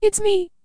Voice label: Field Town Child

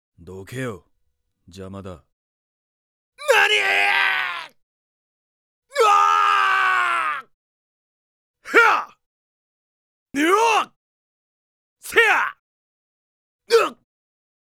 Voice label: character voice; D-XHIRD; SEIYA

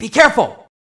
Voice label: voice rage

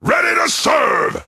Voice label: Demon Guards Taunt

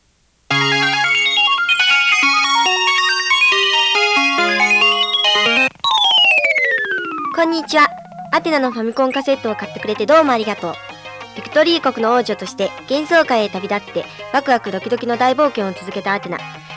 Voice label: Narration; Psycho Soldier; promotional audio cassette

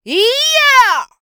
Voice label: Female Fight Appear; Mighty Party; Android

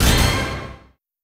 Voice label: Voice Imitation

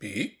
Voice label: Voices intrigued; The Looker

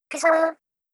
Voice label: Voice Reluctant; Splatoon

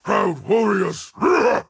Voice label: Warmonger Barrack Taunt

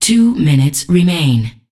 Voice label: Voices Announcer Status

